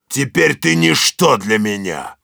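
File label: Heavy revenge, Russian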